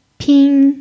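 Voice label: "pin" first tone, chinese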